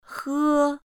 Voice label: "h", Chinese pinyin initial